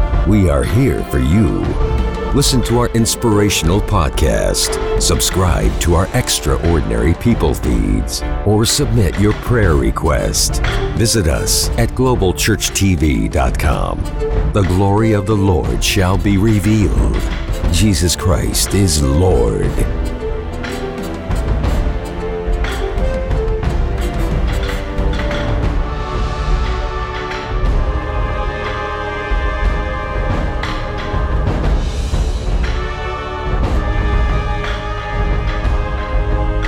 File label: Christian Promo